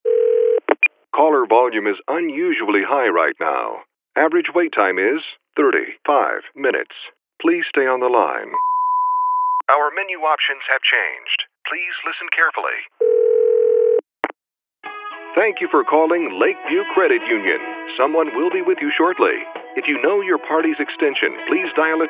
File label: IVR